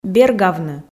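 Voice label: "бергавны", Komi, female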